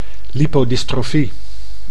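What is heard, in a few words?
"lipodystrofie", Dutch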